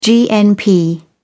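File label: "gnp", British English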